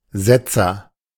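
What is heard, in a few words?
"Setzer", German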